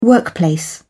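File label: "workplace", British English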